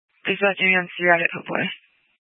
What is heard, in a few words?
Fire Department Dispatch, scanner